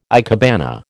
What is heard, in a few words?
"ikebana", American